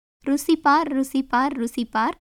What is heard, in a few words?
Tamil